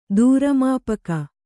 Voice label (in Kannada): "ದೂರಮಾಪಕ", Kannada